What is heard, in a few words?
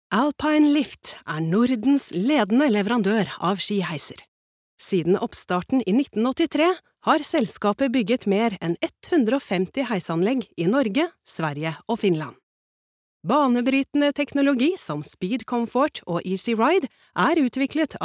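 Norwegian corporate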